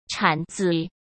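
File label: "铲子", Mandarin Chinese; chinese-flashcards; hsk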